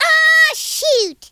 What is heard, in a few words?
"bummer", Cowgirl; speech